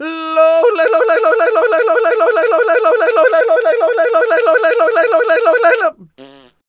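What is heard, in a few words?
voice, Foreigns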